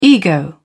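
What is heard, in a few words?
"ego", British English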